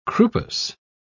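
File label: "croupous", British English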